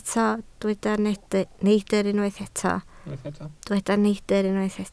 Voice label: "neidr", Welsh

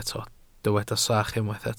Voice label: "sach", Welsh

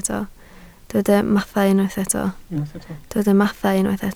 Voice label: "mathau", Welsh

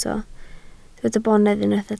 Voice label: "bonedd", Welsh

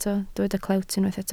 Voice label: "clewt", Welsh

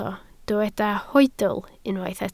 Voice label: "hoedl", Welsh

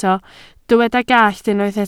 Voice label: "gallt", Welsh

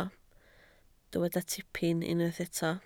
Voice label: "tipyn", Welsh

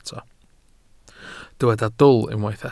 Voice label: "dwl", Welsh

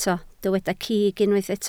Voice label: "cig", Welsh

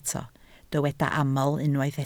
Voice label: "aml", Welsh

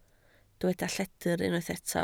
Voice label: "lledr", Welsh

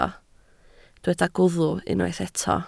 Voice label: "gwddf", Welsh

